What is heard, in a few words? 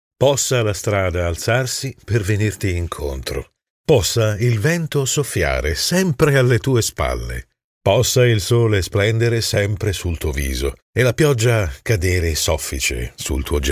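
Italian, general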